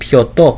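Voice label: "pioto", Greek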